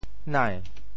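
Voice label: "nai2", Teochew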